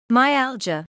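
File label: "myalgia", British English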